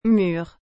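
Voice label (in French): "mure", French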